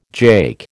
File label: "jake", American